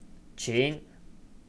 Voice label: "Xiao Qin", pronounce my name